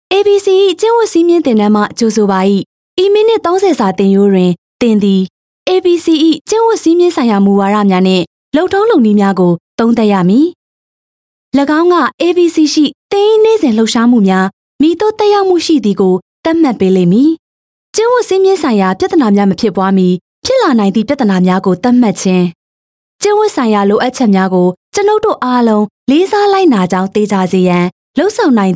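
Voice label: F Burmese E-Learning, female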